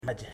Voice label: "eleven", Ipili